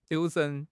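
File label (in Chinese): "留神", Chinese; HSK6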